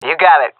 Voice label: agree, fem; vo; agents; Source Model Packs; Counter Strike Model Pack; CS2

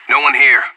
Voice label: clear, anarchist

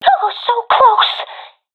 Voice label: ten seconds remaining, professional fem; vo; Counter Strike Model Pack; CS:GO

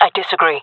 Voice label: disagree, fem; jungle; Counter Strike Model Pack; CS:GO

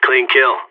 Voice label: nice shot, player vo seal; Counter Strike; CS:GO

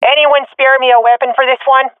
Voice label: request weapon, swat fem; vo; agents; Source Model Packs; Counter Strike Model Pack; CS2

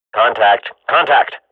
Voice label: radio bot under fire, swat; Counter Strike Model Pack; CS:GO

